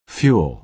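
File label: "fuel", American English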